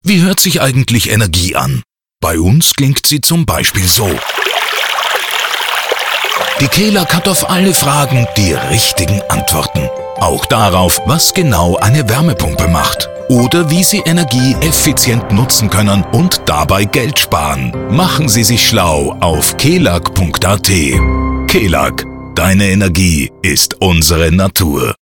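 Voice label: Austrian German Commercial; voice demos; GoLocalise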